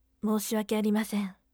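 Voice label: "moushiwake arimasen", firm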